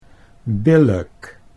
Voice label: "billijk", Dutch

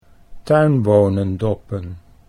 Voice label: "tuinbonendoppen", Dutch

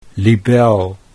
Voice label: "libel", Dutch

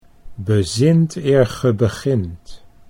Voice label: "bezint eer ge begint", Dutch

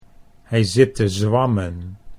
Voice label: "hij zit te zwammen", Dutch

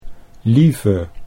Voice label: "lieve", Dutch

